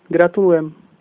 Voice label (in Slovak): congratulations, Slovak